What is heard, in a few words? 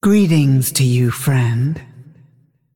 Female Dragon Greetings